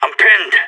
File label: pinned down, radio; SAS